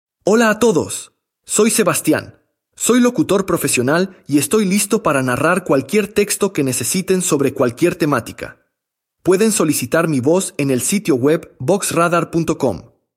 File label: Argentina Spanish